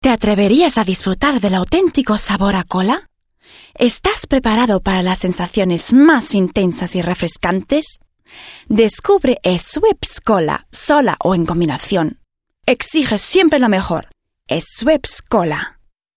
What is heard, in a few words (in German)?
Werbung, f; Spanisch f.; Schweppes